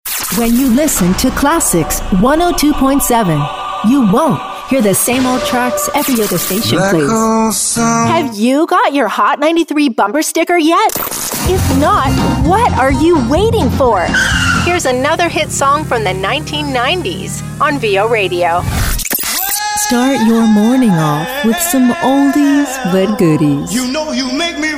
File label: imaging short